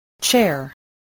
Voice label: "chair", English, voice